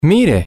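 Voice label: look, Spanish, male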